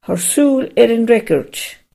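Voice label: check answer, Chinese; gcompris-data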